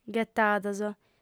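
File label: "ghetados", female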